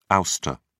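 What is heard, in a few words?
"ouster", British English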